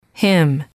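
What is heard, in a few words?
"him", female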